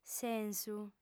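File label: "sensu", female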